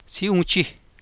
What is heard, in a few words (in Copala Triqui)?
"síí uun chij", Copala Triqui